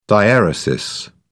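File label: "diaeresis", British English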